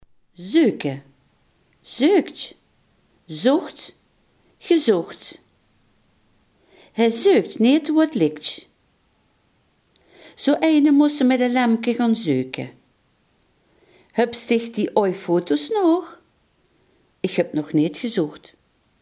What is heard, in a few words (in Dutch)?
"zoeken", limburgse